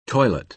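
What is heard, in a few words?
"toilet", American English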